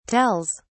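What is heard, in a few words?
"tells", British English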